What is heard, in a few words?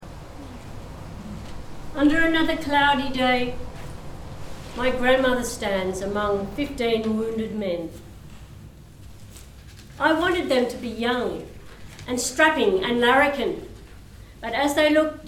Poem; ww1 nz; Among Fifteen Wounded Men